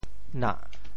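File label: "nah4", Teochew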